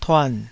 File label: "tuan3", taiwanese